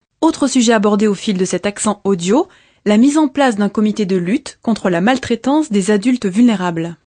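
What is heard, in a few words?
FR F NEWS, French, female; Français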